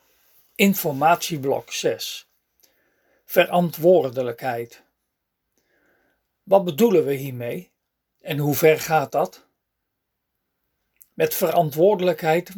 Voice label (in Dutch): spraak instructie